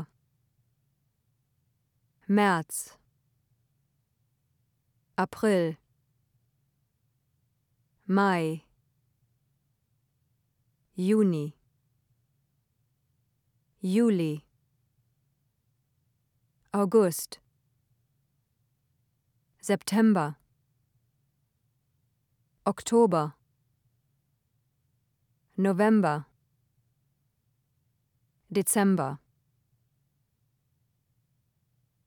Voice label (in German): die Monate, German